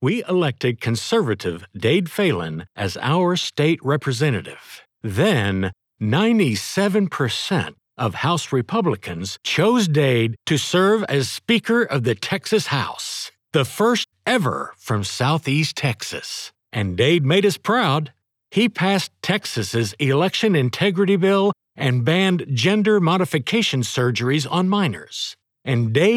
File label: POLITICAL RADIO; SOUTHEAST TEXAS